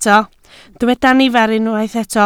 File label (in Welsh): "nifer" Welsh